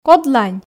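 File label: "кодлань", Komi, female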